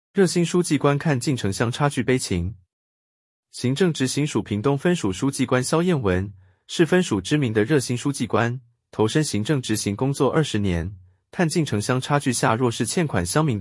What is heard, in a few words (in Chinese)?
ai語音-男; 熱心書記官-看盡城鄉差距悲情